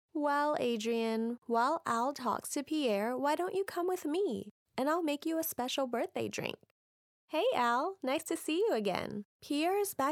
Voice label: English (US) Female